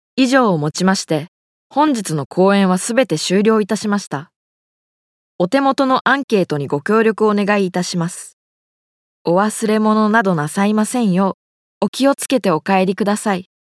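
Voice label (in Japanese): 場内アナウンス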